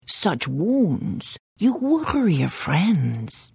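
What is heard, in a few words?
Hello, Khajiit female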